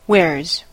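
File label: "where's", American English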